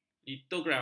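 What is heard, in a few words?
"litograf", Malay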